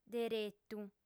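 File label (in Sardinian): "deretu", female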